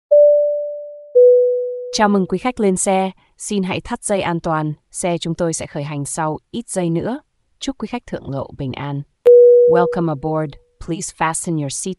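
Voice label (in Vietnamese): tiếng chào khởi động ô tô car giọng nữ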